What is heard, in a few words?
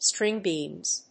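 "string beans", English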